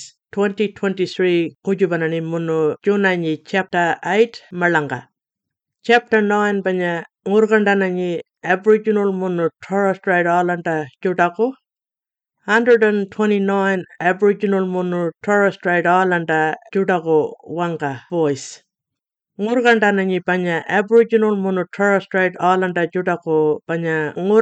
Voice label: Referendum Booklet Constitution Alteration Yankunytjatjara